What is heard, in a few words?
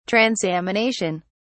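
"transamination", British English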